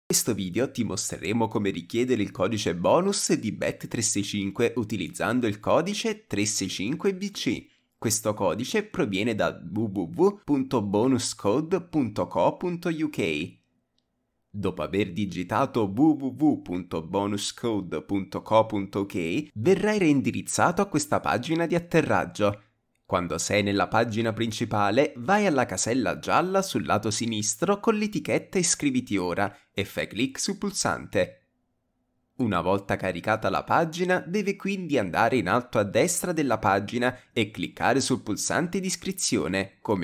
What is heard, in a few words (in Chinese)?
意大利语男 外语 小语种